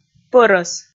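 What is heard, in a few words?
"пӧрӧс", Komi, female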